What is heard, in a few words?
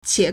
"qie3", Chinese